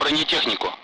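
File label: "armor", Russian; Speech; Target; Allied Flight; DCS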